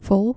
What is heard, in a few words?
"vol", Dutch